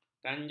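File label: "kanjang", Malay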